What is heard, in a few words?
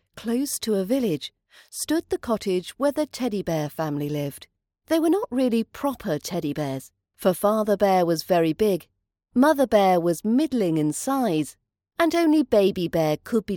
Goldilocks fairytale RP British accent practice audio